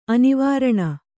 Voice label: "ಅನುವರ್ಣ", Kannada

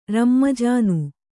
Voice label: "ರಮ್ಮಜಾನು", kannada

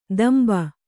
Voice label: "ದಂಬ", Kannada

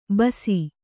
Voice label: "ಬೂಸಿ", Kannada

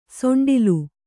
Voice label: "ಸೊಂಡಿಲು", kannada

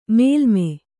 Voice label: "ಮೇಲ್ಮೈ", kannada

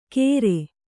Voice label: "ಗೇರೆ", Kannada

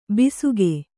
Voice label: "ಬೇಸಿಗೆ", Kannada

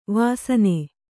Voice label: "ವಸ್ನ", Kannada

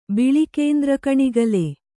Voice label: "ಬಿಳಿಕೇಂದ್ರಕಣಿಗಲೆ", Kannada

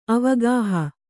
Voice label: "ಅವಗಾಹ", Kannada

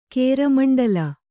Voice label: "ಕೇರಮಂಡಲ", Kannada

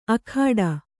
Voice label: "ಅಖಾಡ", Kannada

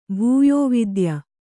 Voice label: "ಭೂಯೋವಿದ್ಯ", Kannada; bhūyōvidya; noun

